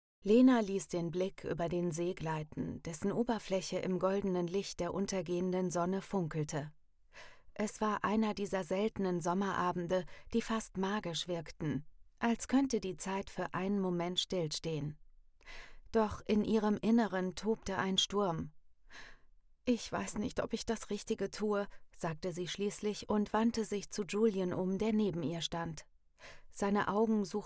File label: german audiobooks, Female; professional German voiceover artist and voice actor; Germany